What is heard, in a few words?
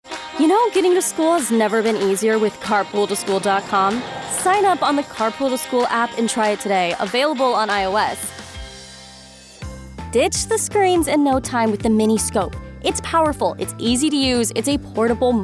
Commercial Demo, voice over